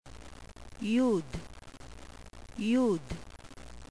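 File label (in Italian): "yud", Ebraico; qabalah; alfabeto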